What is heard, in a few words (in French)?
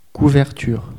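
"couverture", French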